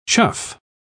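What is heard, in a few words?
"chough", British English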